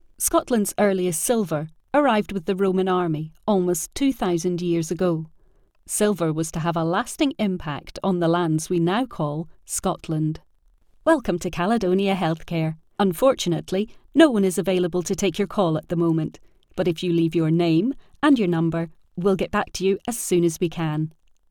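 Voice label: British Scottish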